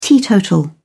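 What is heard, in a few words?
"teetotal", British English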